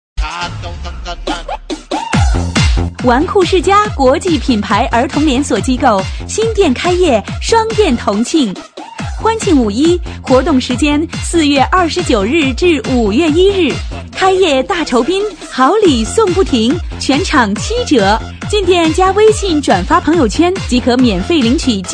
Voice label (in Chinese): 女 促销; 玩酷世家